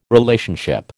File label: "Relationship", American